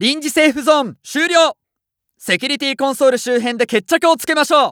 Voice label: Announce 2nd Safe Area Cancel N Ready, JPN; Eternal Return

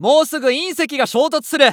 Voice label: Announce Meteor Prep, JPN; Eternal Return

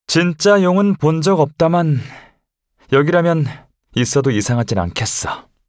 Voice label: Voice Line, KOR; Eternal Return; dragon scale

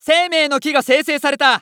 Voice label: Announce Tree Of Life Appear, JPN; Eternal Return

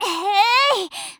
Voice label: attack, Voice Line, JPN; Eternal Return; Tia

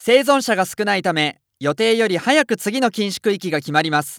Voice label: Announce Restricted Accel, JPN; Eternal Return

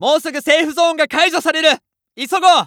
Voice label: Announce 2nd Safe Area Start, JPN; Eternal Return

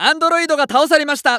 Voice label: Announce New Boss Die, JPN; Eternal Return

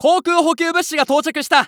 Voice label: Announce Air Supply Drop; Eternal Return

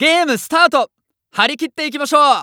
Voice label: Announce Start Game, JPN; Eternal Return

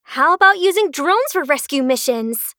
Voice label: call Remote Drone, Voice Line, ENG; Eternal Return

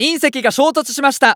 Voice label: Announce Meteor Appear; Eternal Return

